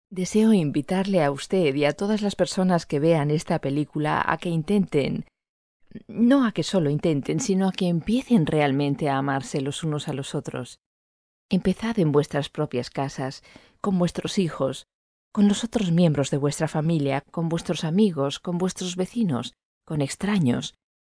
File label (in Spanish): es docum